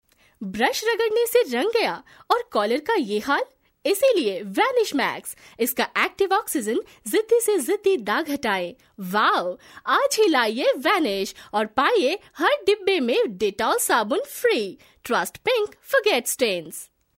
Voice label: hindi, female voice